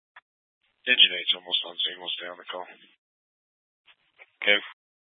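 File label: Fire Dispatch, scanner audio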